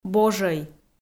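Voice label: "божӧй", Komi, female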